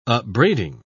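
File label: "upbraiding", British English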